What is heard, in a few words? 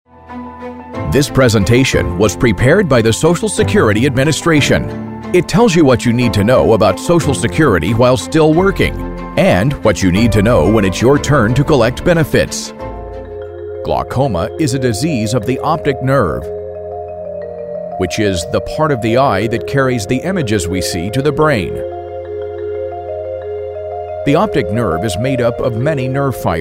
E Learning